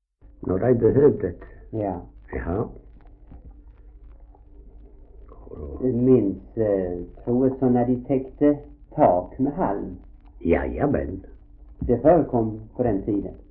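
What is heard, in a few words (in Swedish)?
Ausås Skåne, dialekter svenska sagor; Folkminnen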